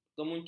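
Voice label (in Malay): "kemuncup", Malay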